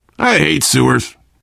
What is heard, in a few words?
world hospital, player survivor voice biker; source engine; left 4 dead 2